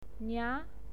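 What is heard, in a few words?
"nia" second tone, pinyin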